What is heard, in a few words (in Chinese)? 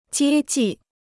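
"接济" Chinese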